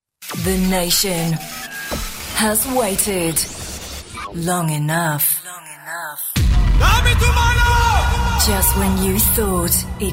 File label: promos